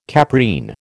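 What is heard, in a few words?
"caprine", American English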